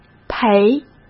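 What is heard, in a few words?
"陪", Mandarin Chinese; hsk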